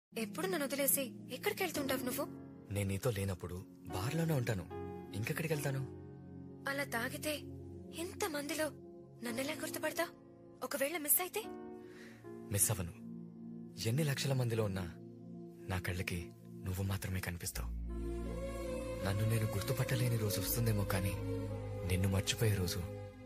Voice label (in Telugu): telugu heart touching dialogue; aashiqui 2 telugu version